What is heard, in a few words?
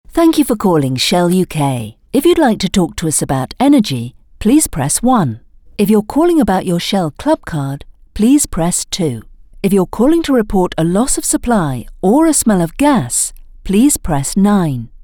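english british interactive voice response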